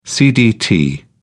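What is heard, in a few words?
"cdt", British English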